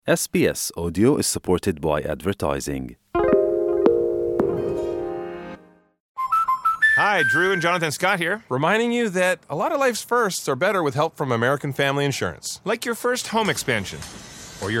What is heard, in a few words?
mandarin news flash; sbs